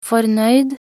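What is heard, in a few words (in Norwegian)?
"fornøyd", Norwegian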